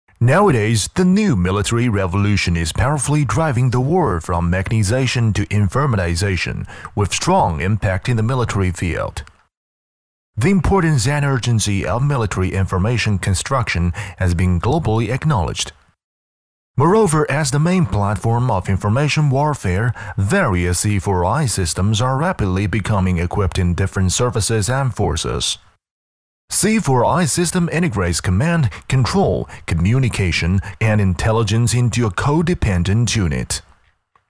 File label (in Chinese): 英男, 专题, 中立客观叙述